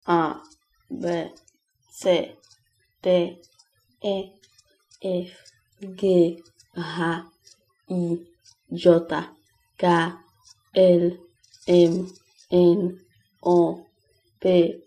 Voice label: alphabet Interlingua

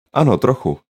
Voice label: yes a little, czech; Ano, trochu

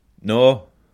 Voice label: no, scots

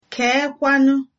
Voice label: how are you, igbo

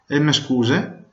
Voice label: excuse me, venetian

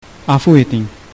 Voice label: sorry, uzbek

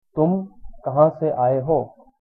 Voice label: where from, hindi

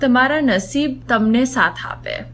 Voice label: good luck, gujarati

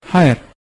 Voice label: goodbye uzbek

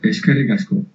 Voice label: thanks, basque

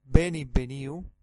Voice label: welcome, Sardinian

